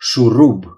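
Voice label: "screw", Romanian; gcompris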